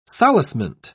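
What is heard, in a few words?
"solacement", English, British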